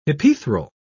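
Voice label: "hypaethral", British English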